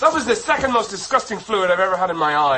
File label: disgusting